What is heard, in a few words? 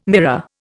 "mirror", Indian